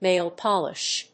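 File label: "nail polish", English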